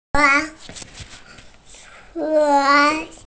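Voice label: "juan1", Mandarin Chinese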